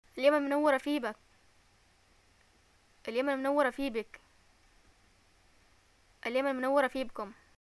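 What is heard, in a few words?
"greeting arrival", Yemeni Arabic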